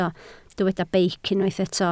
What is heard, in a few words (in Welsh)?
"beic", Welsh